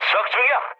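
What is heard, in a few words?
Korean player killed enemy mood low; speech; War Thunder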